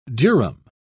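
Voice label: "durum", British English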